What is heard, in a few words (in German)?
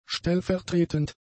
"stellvertretend", German; adjectives